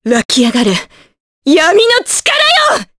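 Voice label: Vox Skill Japanese; Maria; kingsraid; jp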